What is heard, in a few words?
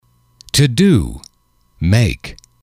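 "to do make", English, male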